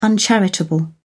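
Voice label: "uncharitable", British English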